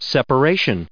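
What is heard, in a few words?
"separation", English, us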